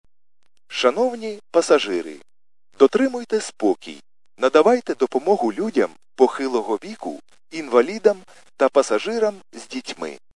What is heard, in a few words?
khs st male, subway station